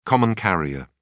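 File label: "common carrier", British English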